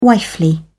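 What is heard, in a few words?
"wifely", British English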